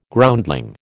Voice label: "Groundling", American

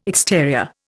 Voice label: "exterior", Indian

